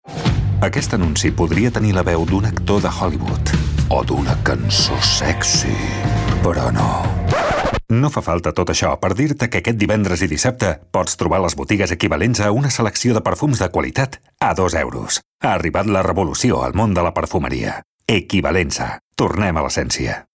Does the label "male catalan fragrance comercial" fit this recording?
Yes